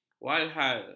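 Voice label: "walhal", Malay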